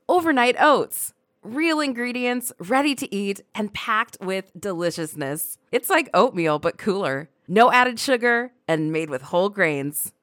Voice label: Online Ad Cool Mom Fun, Natural, Relatable and Motherly